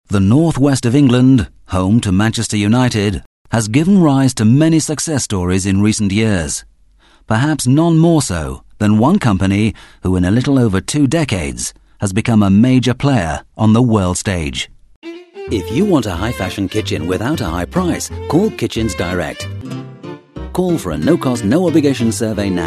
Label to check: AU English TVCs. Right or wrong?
Wrong